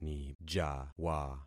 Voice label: "nijawa", concat syllables; Affixing experiment